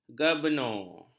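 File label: "gabenor", Malay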